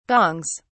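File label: "gongs", British English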